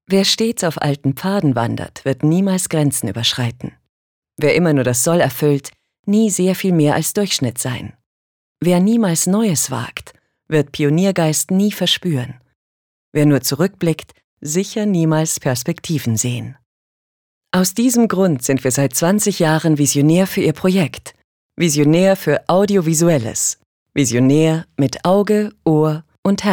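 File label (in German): commentary, Image, Sanft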